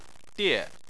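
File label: "die" fourth tone, Chinese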